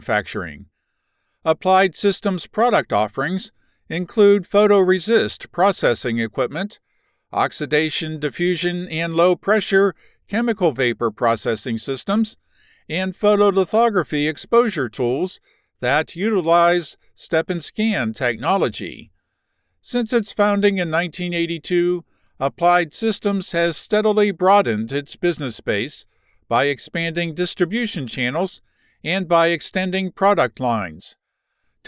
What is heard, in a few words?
Brand information technical explainer; e-Learning Voiceovers